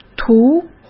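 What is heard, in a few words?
"涂", Mandarin Chinese; hsk; chinese-flashcards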